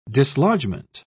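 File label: "dislodgement", English, British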